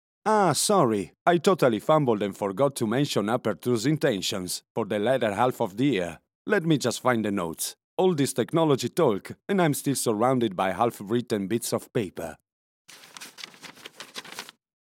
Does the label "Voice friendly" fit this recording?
No